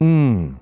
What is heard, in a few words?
phoneme n, American; American IPA phonic